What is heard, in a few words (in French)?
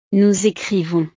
"nous ecrivons", frances